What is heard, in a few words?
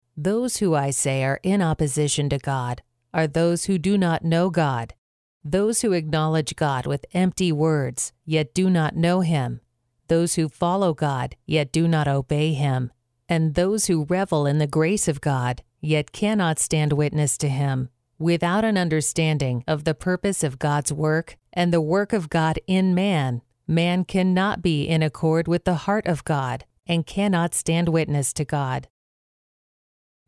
Church Audiobook